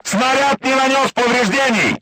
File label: voice message armor not pierce, crew tanks russian